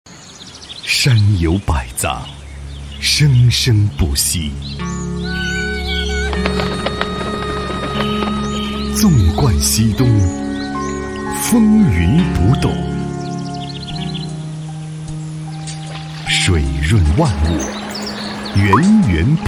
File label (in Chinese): 男 CCTV 形象片; 中国邮政储蓄银行